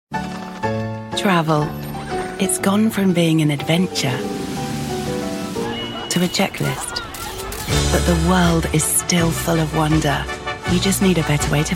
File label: commercial